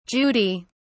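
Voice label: "judy", British English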